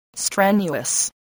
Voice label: "strenuous", voice spelling